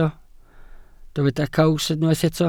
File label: "caws", welsh